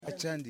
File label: "White", Yimas